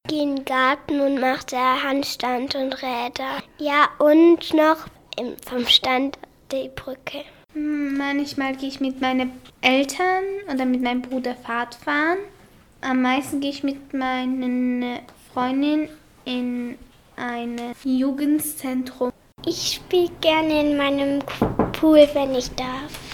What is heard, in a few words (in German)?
Umfrage was machen bei tollem Wetter draußen